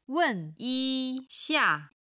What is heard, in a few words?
"wen4yi1xia4", Chinese